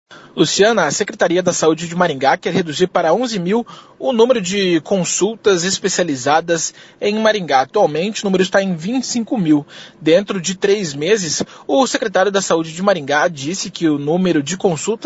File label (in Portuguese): ao vivo